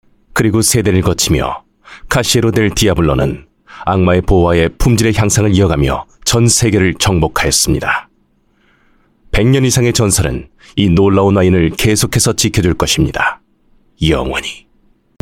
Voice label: Korean